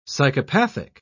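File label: "psychopathic", British English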